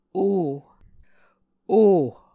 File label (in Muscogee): "u", Muscogee